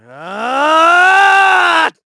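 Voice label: Vox Casting, Korean; KaselB; kingsraid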